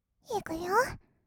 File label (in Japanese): 「いくよ」, kawaii voice; 1200 kawaii voice!!; 色々なワンショット